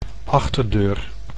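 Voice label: "achterdeur", Dutch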